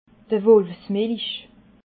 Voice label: "wolfsmilch", alsace

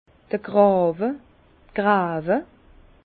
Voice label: "grawe", Bas Rhin; fossés; vin

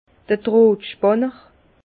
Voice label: "drohtspanner", Bas Rhin; vin; raidisseur

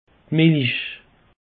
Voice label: "milich", alsace; peche

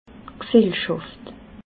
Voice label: "gsellschaft", alsace

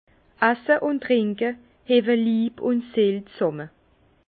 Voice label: "asse", Bas Rhin; vin; boire et manger soudent corps et âme

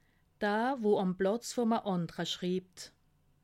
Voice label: "platz", alsace

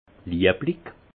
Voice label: "lieblich", alsace; vin; aimable

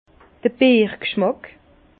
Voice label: "bierg", Bas Rhin; vin; goût de biere

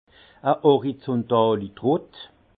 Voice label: "horizuntalitrott", alsace; vin; pressoir horizontal

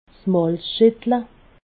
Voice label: "malzschettle", alsace

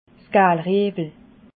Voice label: "gaalriewle", alsace

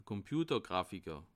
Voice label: "komputergrafiker", alsace

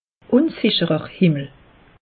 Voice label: "unsicherer", alsace; meteo

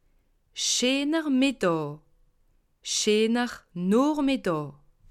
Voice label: "noochmidda", alsace

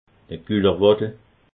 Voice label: "gullerwaddel", alsace

